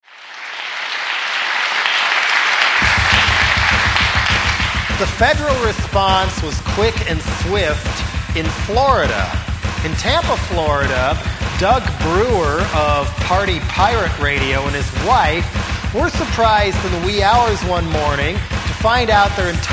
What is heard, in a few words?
Promo; Jello Pirate Radio Bust; RantRadio; Station Promos-In House